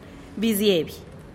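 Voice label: "biziebih", Teotitlán del Valle Zapotec; orphan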